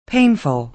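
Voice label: "painful", American English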